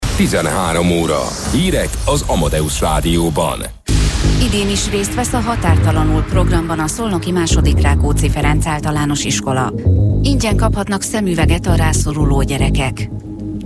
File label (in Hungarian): radio